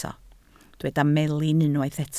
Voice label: "melin", Welsh

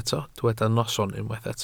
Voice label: "noson", Welsh

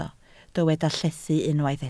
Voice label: "llethu", Welsh

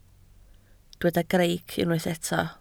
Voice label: "greic", Welsh

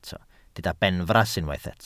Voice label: "benfras", Welsh

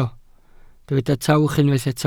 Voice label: "tawch", Welsh

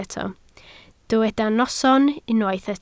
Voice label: "noson", Welsh

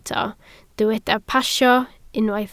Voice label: "pasio", Welsh

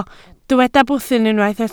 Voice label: "bwthyn", Welsh

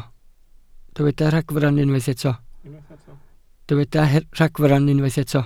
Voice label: "ragfarn", Welsh

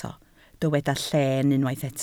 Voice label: "lleen", Welsh

